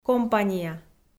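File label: "компания", Komi, female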